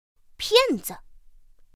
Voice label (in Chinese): "piànzi", pinyin; pinyin2; pinyinji